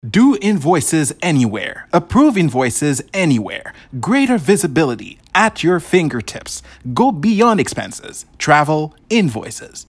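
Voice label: Haitian Creole, accented English; voice demos; GoLocalise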